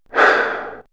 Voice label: Men Women Animal Magic, vox; vox mix; X-Static Goldmine